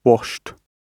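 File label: "washed", British English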